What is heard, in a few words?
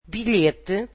portuguese ticket